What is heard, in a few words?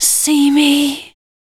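vocal female, Dance Vocals, spoken words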